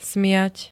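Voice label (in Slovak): "smiať", Slovak; prejavovať radosť smiechom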